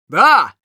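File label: Scout yes, Russian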